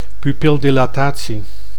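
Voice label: "pupildilatatie", Dutch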